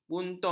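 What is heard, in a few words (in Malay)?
"bunuh", Malay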